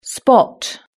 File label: "spot", british english